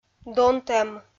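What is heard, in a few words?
"донтӧм", Komi, female; kpv audio dict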